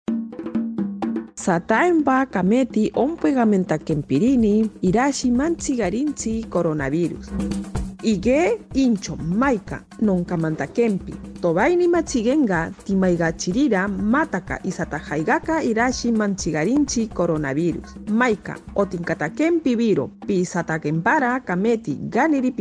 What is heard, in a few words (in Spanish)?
matsigenka, spot de radio; vacuna contra el coronavirus; vacunate para protegerte del coronavirus